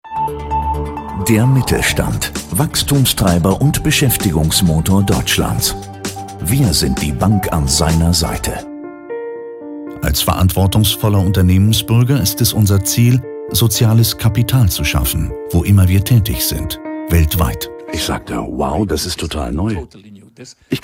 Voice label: Male 45 55 years Low, German voice-over, A convincing and calming voice; DE